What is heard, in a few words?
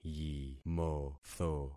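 "yimotho", concatenated syllables; Affixing experiment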